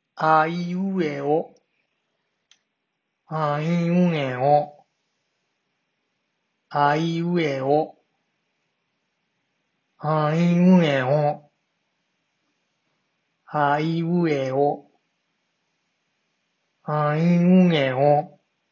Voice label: nasal vowel a i u e o